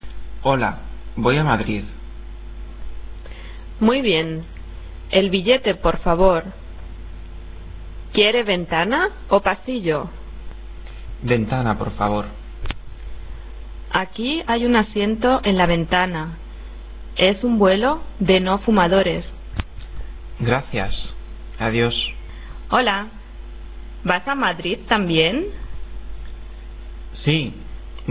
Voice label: dialog